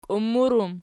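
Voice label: "kiummur" plural, Archi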